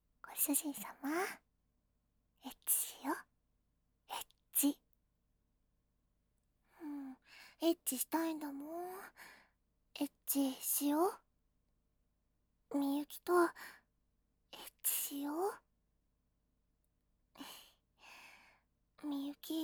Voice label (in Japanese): エッチしよ はにかみ, 左, バイノーラル; ハーレムオナサポ 4人のメイドがご主人様のオナニーをお手伝いするバイノーラル; DL製作班